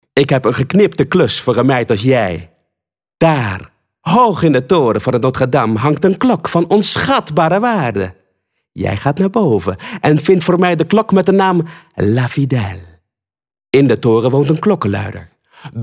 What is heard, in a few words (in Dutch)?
Karakterstem